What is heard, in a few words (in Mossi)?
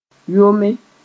"yome", moore; tremble